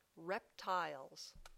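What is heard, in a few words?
"reptiles", English word; Animal Classes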